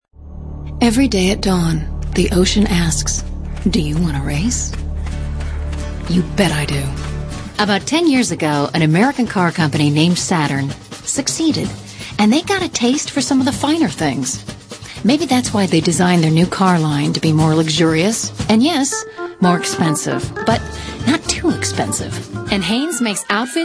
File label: female 30s 40s